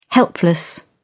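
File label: "helpless", British English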